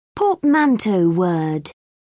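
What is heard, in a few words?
"portmanteau word", British English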